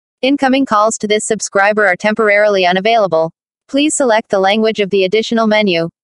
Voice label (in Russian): уведомление АНГ